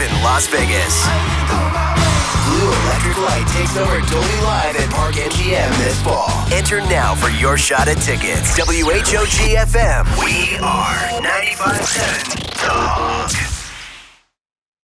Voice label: Radio Rock, voiceover

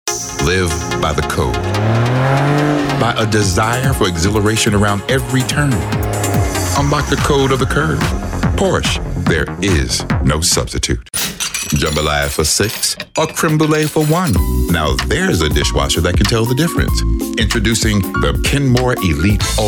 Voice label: Voiceover

